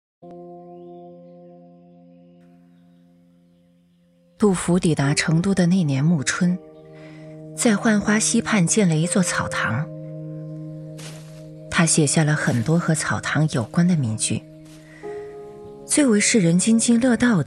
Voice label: 女 专题 叙述 历史感; 杜甫

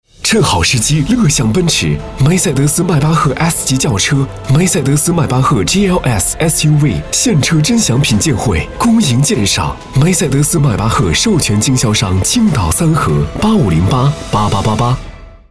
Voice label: 男 广告; 奔驰

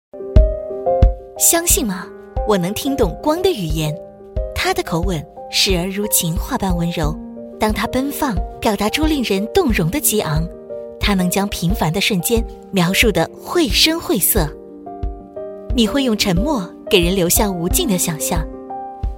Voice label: 女--中文广告; 三星

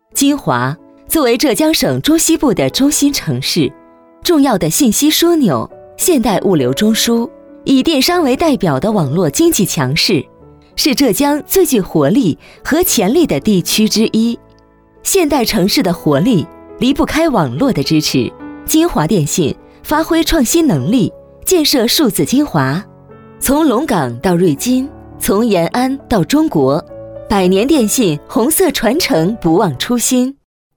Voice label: 女 专题; 金华电信

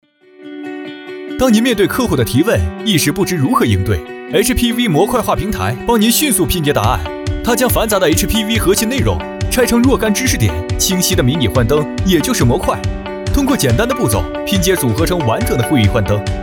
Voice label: 男 MG 产品讲解

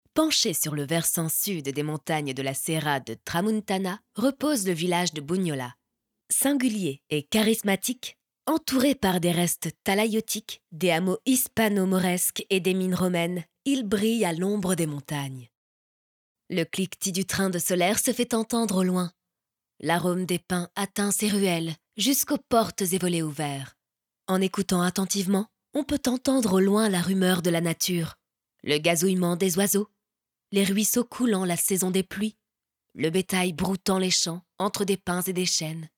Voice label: 法语女 软件介绍

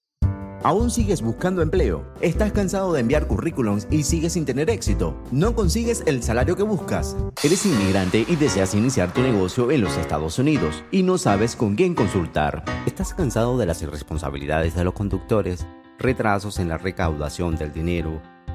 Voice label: 外籍西语男-广告合辑

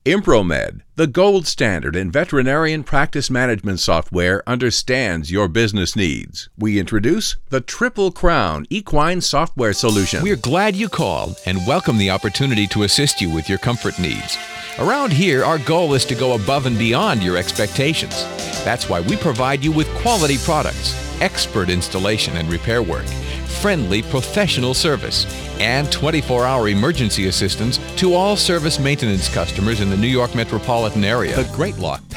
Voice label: 外籍英男 企业宣传专题

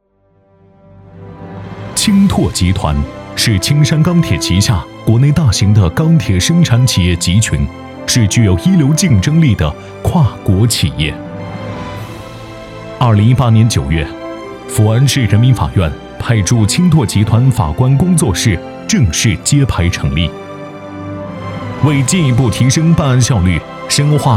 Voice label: 男 宣传片 法官工作室宣传; 青拓法官工作室

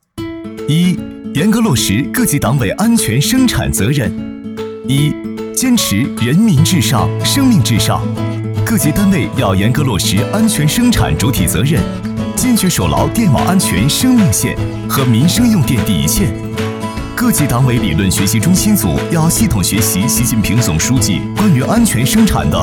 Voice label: 男; 飞碟说-行为准则

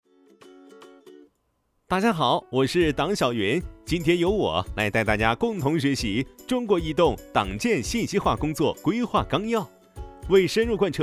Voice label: 男 MG 信息化; 飞碟说

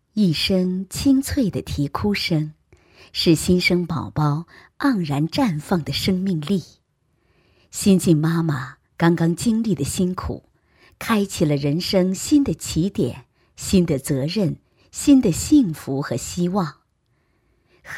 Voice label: 女 专题 细致呵护 月子中心

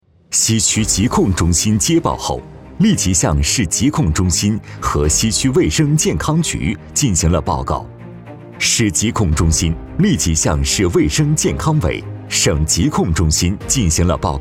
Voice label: 男 政府 大气 流畅; 疾控

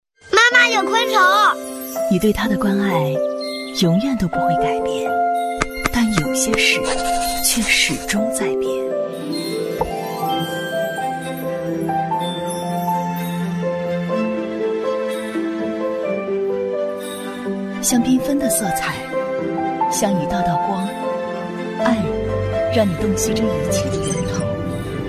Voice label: 女 广告 护眼灯; 飞利浦轩坦护眼灯

